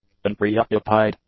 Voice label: "unpreoccupied", english; adjectives